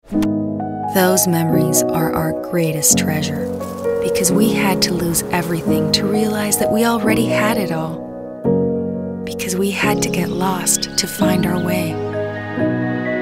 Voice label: Nostálgica EN